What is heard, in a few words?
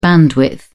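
"bandwidth", British English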